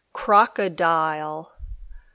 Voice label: "crocodile", English